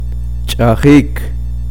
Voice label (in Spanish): uspanteko lavar